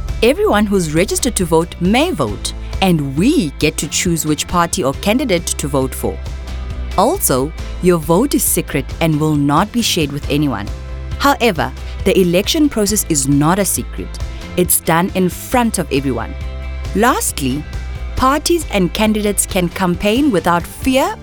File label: Free and fair elections, English